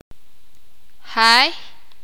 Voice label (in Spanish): "casa", sakapulteko